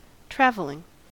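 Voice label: "travelling", US English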